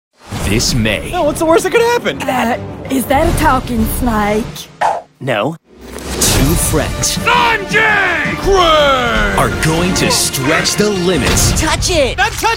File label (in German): Habillage Vorschauvideos Teenagerpublikum; NATIVE PROFISPRECHER